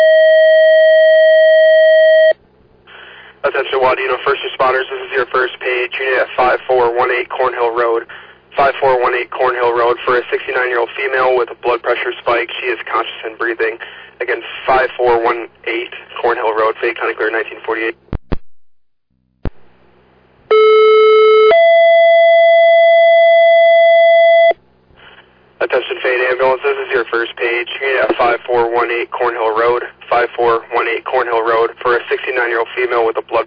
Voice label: Fire, dispatch